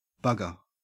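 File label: "bugger", Australian English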